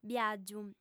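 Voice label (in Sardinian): "biàgiu", female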